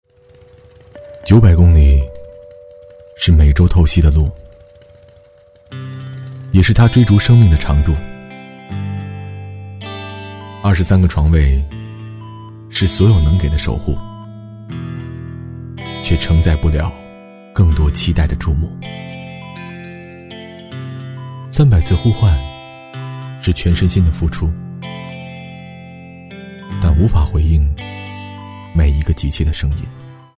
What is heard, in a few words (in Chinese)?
男国 广告 公益 医院 讲述